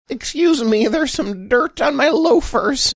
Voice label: saying excuse me theres some dirt on my loafers funny